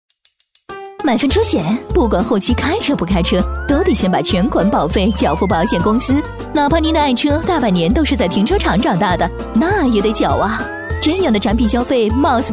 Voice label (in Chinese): 女 MG 轻松, 幽默搞怪; 飞碟说; 天天车保